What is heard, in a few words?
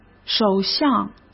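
"首相", Mandarin Chinese; hsk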